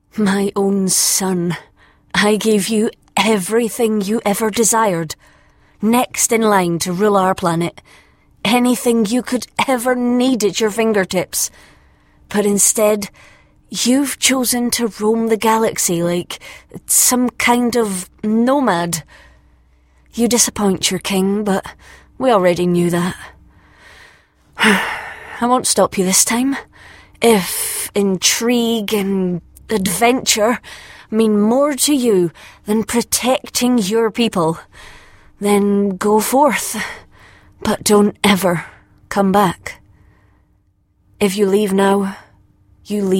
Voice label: scottish disappointed mother